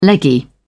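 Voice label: "leggy", British English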